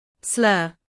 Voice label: slur